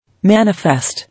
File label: "Manifest", English